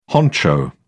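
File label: "honcho", British English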